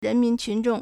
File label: "ren2 min2 qun2 zhong4", Chinese